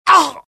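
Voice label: death, dialogue generic Italian; mohaa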